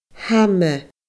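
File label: "hama", Scottish Gaelic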